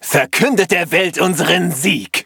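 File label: victory, German; cookie run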